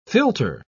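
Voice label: "philter", British English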